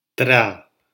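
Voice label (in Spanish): "tra" Spanish syllable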